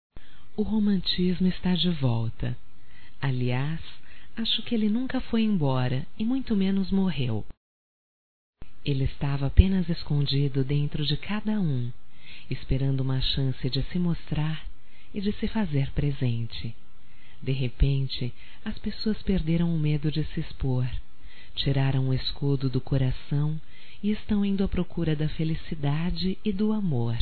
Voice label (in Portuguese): ANIVERSÁRIO CASAMENTO OU NAMORO, masc; Casamentos